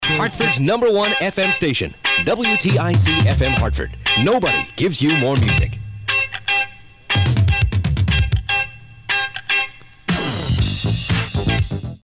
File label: fm air check; wtic